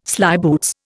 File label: "slyboots", Indian